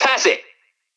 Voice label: VO "Pass It"